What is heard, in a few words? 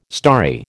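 "starry", American English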